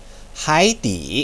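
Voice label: "haidi", Mandarin Chinese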